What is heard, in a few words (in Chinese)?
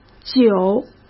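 "九", Mandarin Chinese; chinese-flashcards; hsk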